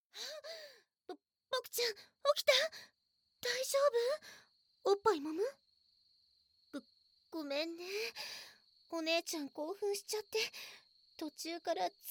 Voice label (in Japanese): BGV有り, ASMR; Wお姉さんのサンドイッチで柔肌にたっぷり溺れちゃう…♪